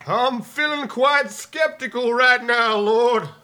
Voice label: Preacher Lose; blackjack